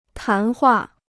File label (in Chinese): "谈话", Chinese